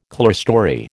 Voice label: "clearstory", American